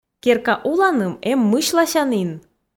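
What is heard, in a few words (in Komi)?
"керка уланым эм мысьласянін", Komi, female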